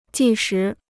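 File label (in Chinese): "计时" Mandarin Chinese; jì shí; to measure time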